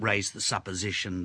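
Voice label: raiseD the supposition, Australian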